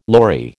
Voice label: "lory", American